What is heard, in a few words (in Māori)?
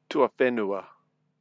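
"tuawhenua", Māori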